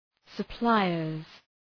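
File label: "suppliers", English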